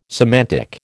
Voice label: "semantic", American

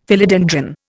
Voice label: "Philodendron", Indian; Dictionary

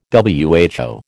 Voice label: "WHO", American

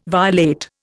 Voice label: "violate", Indian

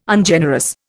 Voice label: "Ungenerous", Indian

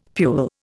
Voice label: "pule", Indian; Dictionary

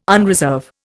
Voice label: "unreserve", Indian

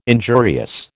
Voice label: "injurious", American

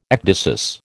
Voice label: "ecdysis", American

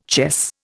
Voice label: "chess", Indian

